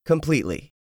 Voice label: "completely", English; 3000 words